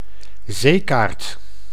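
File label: "zeekaart", Dutch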